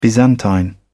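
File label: "byzantine", British English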